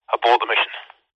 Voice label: technology cb radio male says abort the mission speaker pov; zapsplat